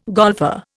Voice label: "golfer", Indian

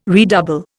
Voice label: "redouble", Indian

